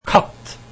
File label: cat, norwegian